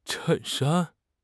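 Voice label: "chen4 shan1", Chinese; HSK; HSK3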